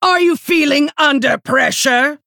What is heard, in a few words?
Female Half Orc Emote Threaten